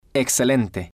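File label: "excelente", Spanish, male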